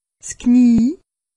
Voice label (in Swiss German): "knie", alsace; medecine